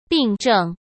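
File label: "病症", Mandarin Chinese; hsk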